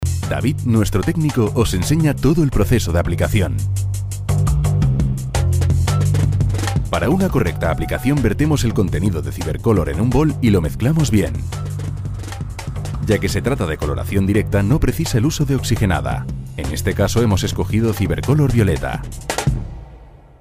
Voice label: E-LEARNING

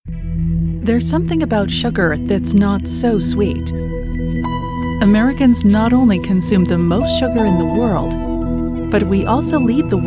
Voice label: MEDICAL NARRATION

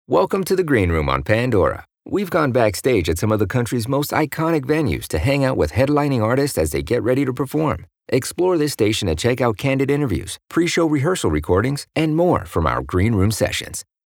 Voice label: English Documentary

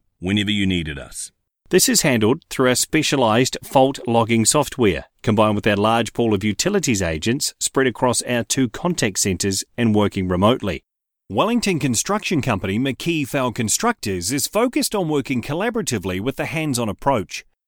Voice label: NARRATION VIDEO AND TRAINING COLD

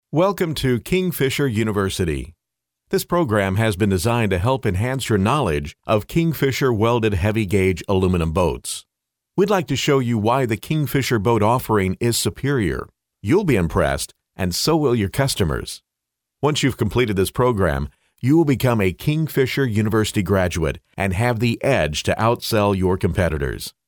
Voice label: e Learning

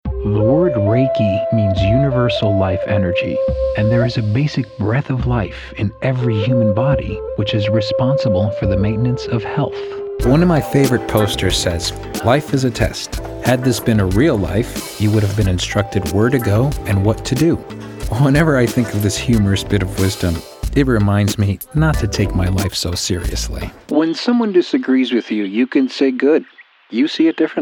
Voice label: Narration

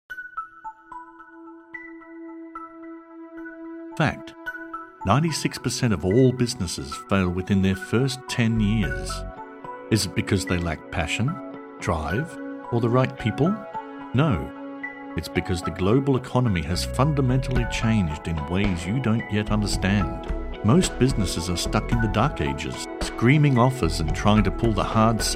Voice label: voice over

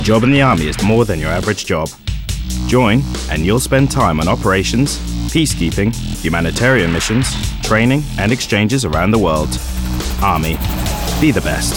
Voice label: Commercial Army

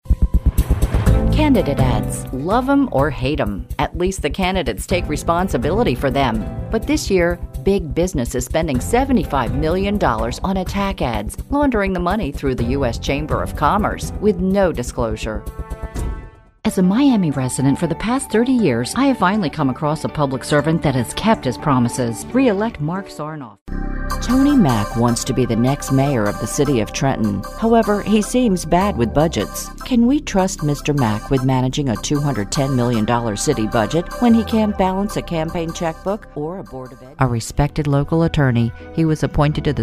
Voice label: Political Ads

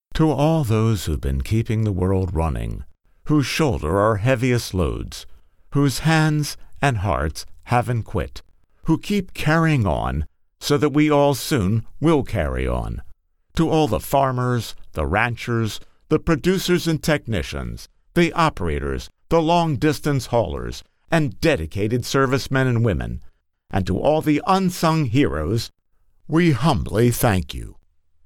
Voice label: Narration Social Video

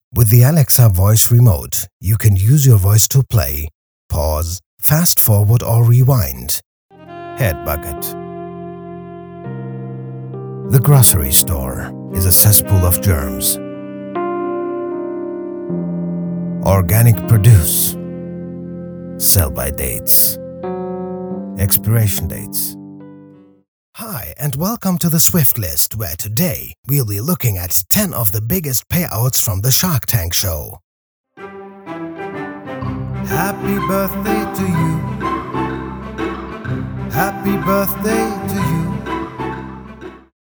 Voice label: ENGLISH

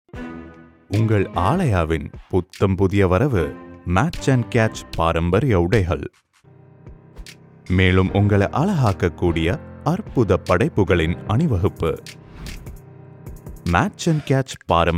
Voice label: Tamil

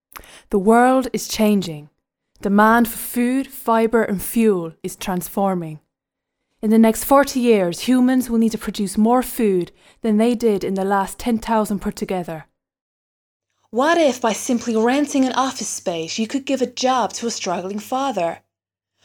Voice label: accent

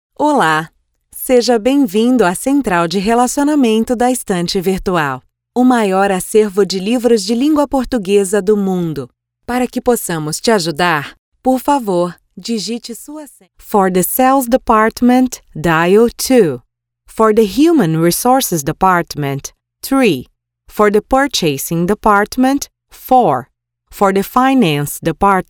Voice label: Phone System Voice Over

